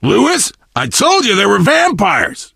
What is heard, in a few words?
initial meeting, survivor voice biker